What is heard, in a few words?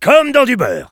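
Engineer cheers, French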